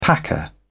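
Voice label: "packer", British English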